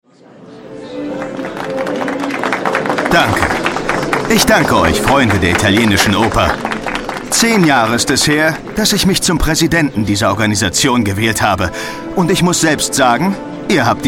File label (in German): Hoerspiel; Synchronsprecher & Schauspieler